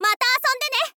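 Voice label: "mata asonde ne", Japanese, girl; voice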